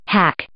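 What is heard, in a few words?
"hack", English, female; verb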